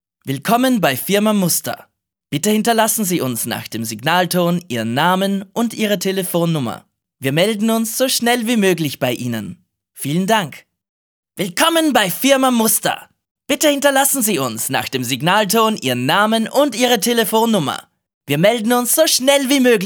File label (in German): Telefonansage Varianten